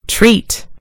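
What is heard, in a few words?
"treat", British English; gcompris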